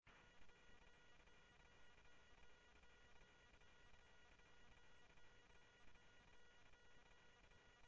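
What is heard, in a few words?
Sheriff East County, scanner audio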